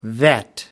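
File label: "vet", English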